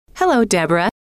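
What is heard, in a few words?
"hello", female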